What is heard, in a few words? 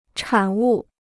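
"产物", Chinese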